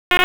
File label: Police North District Tac 1, p25; Raleigh; wake